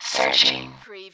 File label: Robot voice, "searching"; lynne publishing